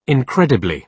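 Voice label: "incredibly", British English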